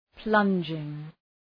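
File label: "plunging", English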